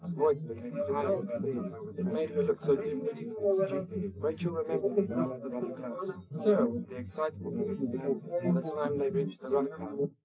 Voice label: male, 130ms, 4cm